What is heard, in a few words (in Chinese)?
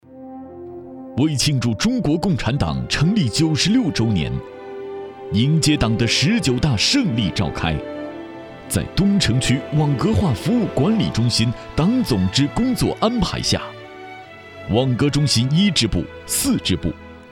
男声 专题片—党政专题片—激情大气风格 大气浑厚磁性 沉稳, 国语